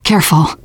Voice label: warn careful, teen girl; player; survivor; voice